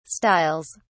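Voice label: "styles", English, uk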